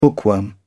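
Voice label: "bookworm", British English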